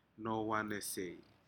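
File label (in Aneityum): "nohowanesei", Aneityum word, shellfish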